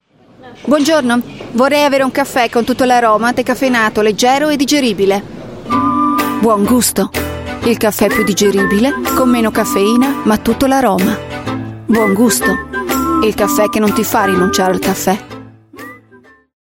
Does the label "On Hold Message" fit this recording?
No